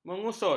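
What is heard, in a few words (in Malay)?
"mengusut", Malay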